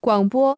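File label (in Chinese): "广播" Chinese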